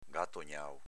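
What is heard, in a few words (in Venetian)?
"gatognao", Veneto dialect; s.m. gattonamento. 'Ndare g.=Gattonare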